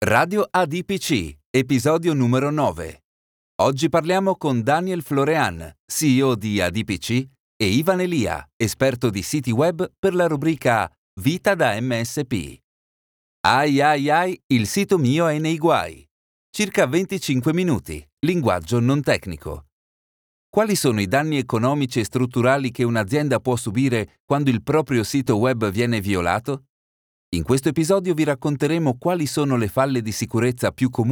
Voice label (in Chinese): 意大利语男,轻快专题